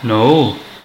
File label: Man Saying No